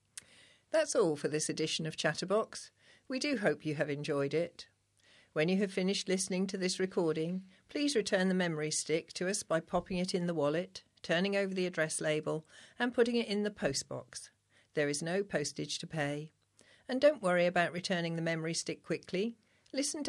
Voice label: Closing Announcement